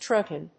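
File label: "true tone", English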